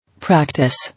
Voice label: "Practice", English